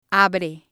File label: "abre", spanish